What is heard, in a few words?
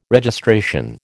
"Registration", American